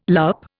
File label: "loupe", Indian